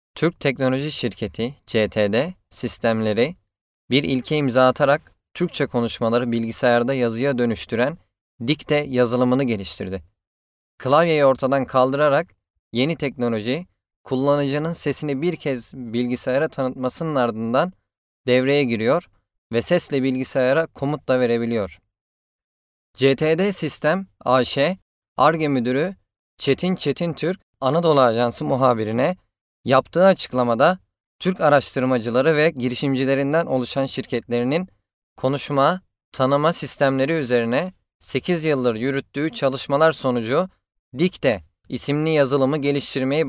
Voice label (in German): Nachvertonung Film Sprecher Türkisch